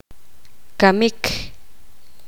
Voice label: poqomam; morir